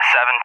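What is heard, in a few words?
"7", English; Digits; DCS; Allied Flight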